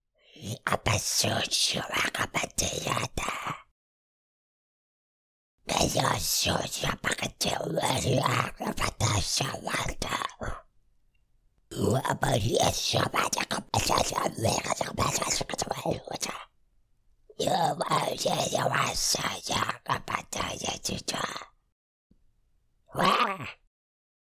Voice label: Creature Gibberish Language Dialogue Monster Alien